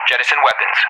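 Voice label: "jettison weapons", English; DCS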